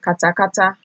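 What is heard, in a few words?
"katakata", Nigerian Pidgin, female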